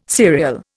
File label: "serial", Indian